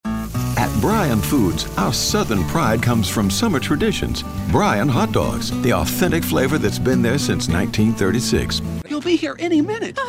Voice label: Character